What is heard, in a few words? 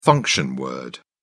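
"function word", British English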